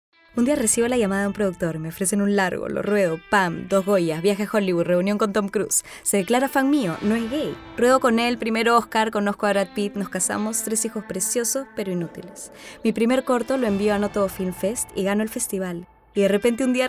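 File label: female latin american peruvian comercial; latam; ideasonora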